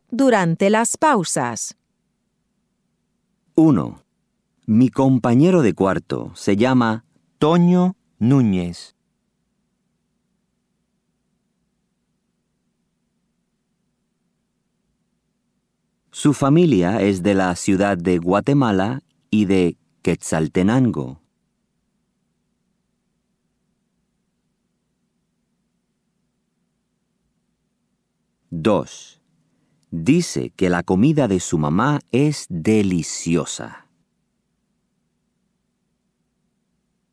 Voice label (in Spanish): pronunciacion oraciones; textbook; Chapter 8